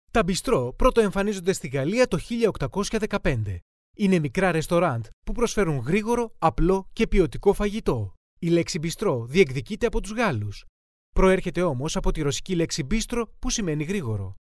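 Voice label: Greek, male, commercial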